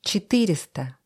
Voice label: russische zahl 20; russische zahl 400; Zahlen auf Russisch

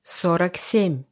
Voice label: russische zahl 20; Zahlen auf Russisch

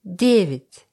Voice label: russische zahl 20; Zahlen auf Russisch

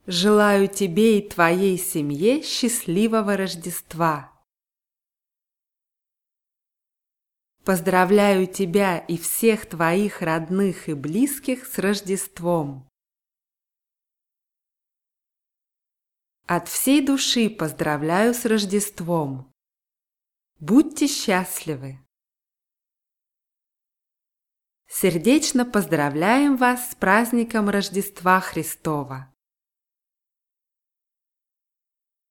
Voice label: weihnachtswuensche russisch

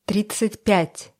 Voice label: russische zahl 20; Zahlen auf Russisch

